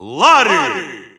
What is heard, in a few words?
Russian Announcer; SSBU